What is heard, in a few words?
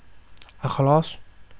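"across", Thai, male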